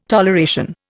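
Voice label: "tom", Indian; Dictionary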